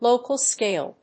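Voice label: "local scale", English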